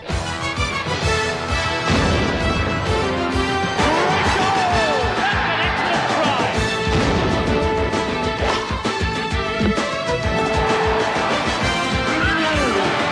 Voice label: promo sports; sky; uk